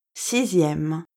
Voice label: "sixième", French

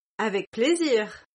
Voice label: "avec plaisir", French

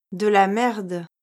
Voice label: "de la merde", French

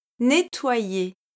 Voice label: "nettoyer", French

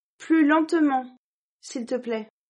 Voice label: "plus lentement s'il te plaît", French

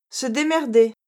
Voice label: "se démerder", French

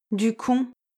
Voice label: "ducon", French

